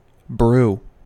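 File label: "brew", American English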